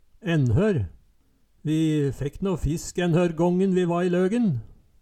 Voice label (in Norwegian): enhørr, Numedalsmål; Vi fekk nå fisk enhørr gången vi va i Løgen